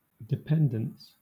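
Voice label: "dependence", British English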